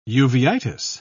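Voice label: "uveitis", British English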